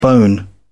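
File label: "bone", British English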